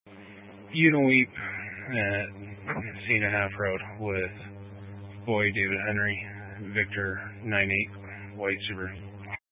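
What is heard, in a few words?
Sheriff Dispatch, scanner audio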